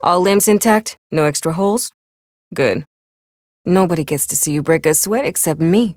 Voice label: Ambient Barks, en; Warframe; Mall Romance; Lettie

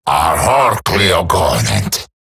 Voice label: Player Spotted Male; en; Dialog; Barks; Battle; Grineer; Eximus

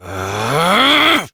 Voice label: Abil Exert Adult en; Warframe; Duviri; Drifter; Melee

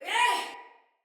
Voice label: Attack, Female; Battle; Barks; Dialog; Sinecure Soldier Female B

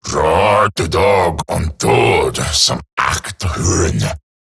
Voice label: Patrol Eximus Grineer Male; Battle Barks

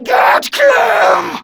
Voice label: Attack, Female; Warframe; Grineer Female; Battle Barks

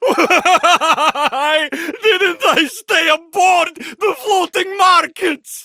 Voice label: Villagers Ostron Male Older en

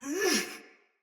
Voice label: Battle Female; Soldier; Barks; Sinecure Melee Mace Agent

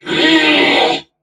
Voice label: Threatened Crewmen; Warframe; Corpus Crewmen; Crewman E; Dialog Barks Battle

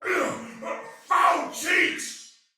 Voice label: Damage Low Chance Soldier Male, Battle; Barks; Dialog

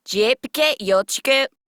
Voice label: Patrol Female; Warframe; Modular Corpus Female; Battle Barks; en

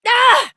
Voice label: Fail Interjections, Adult, en; Warframe; Duviri; Conservation

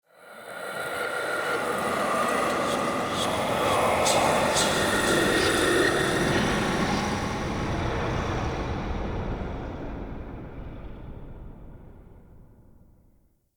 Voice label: Crypt Spirit Talk; Ambience; Champion Crypt

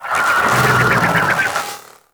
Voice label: Vocal Death; Warframe; Sentients; Conculyst

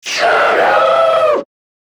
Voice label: Attack Grineer Manic; Battle Barks

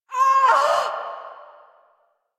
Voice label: Pain, en; Warframe; Corpus Lich; Persona B

